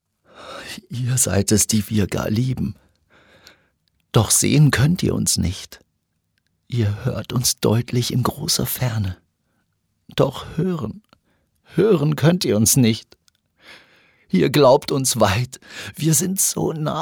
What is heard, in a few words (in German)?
traurig